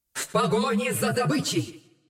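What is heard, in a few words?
Russian; 奥丽莎（守望先锋2）; 终极技能; 俄语; В погоне за добычей!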